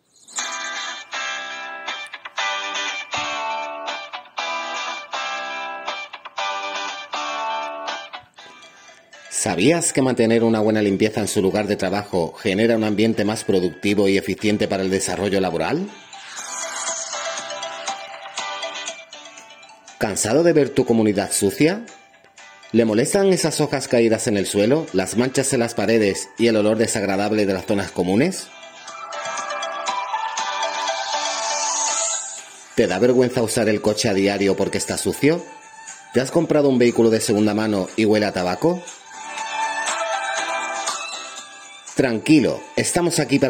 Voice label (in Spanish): PROMOCION RADIOFONICA; IMPOLUTTO